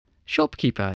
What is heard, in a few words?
"shopkeeper", spelling